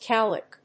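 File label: "cowlick", English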